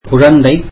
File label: tamil child